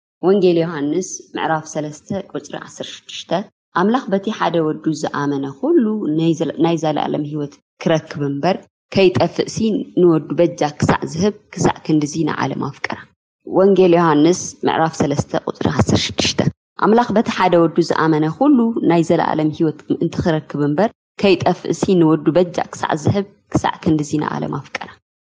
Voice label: Tigrinya